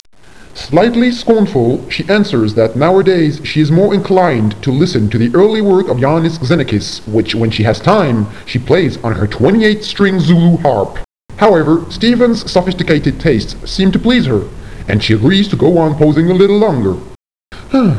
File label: slightly scornful; New Voices New Visions 1994; Interactive Cartoon Strip!; Zika